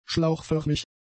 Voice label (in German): "Schlauchförmig", German; adjectives